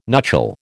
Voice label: "nuchal", American